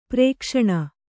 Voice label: "ಪ್ರಕಾಶನ", Kannada